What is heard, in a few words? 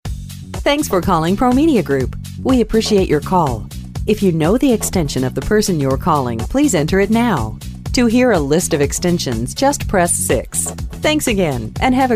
Message On Hold